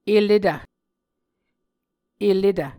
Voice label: "eletv", Muscogee